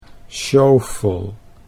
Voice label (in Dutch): "sjofel", Dutch